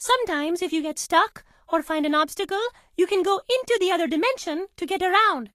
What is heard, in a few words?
English Voice